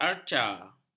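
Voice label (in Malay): "arca", Malay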